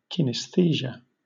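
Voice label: "kinesthesia", British English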